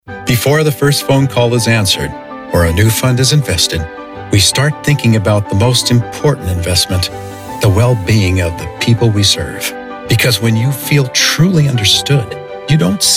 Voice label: vo commercial